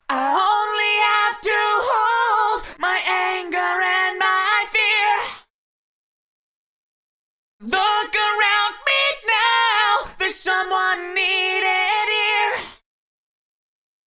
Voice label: Eventide H910 Vox Maj2 Up